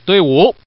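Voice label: Man, pair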